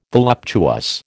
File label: "voluptuous", American